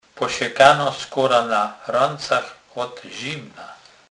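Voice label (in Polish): "posekana", Kurpie dialect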